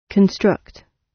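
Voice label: "construct", British English; Design and innovation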